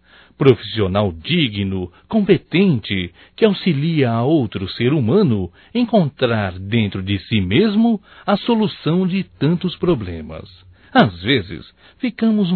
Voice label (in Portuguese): HOMENAGEM AO PSICOLOGO, Só Voz, masc; Telemensagens; HOMENAGENS